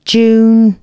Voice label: female, announcements